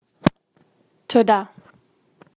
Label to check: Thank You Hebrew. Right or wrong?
Right